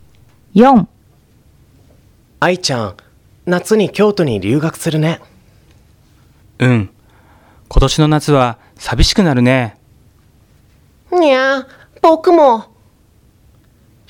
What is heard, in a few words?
Conversation